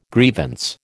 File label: "grievance", American